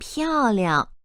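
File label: "piao4 liang5", Chinese, female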